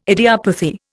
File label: "idiopathy", Indian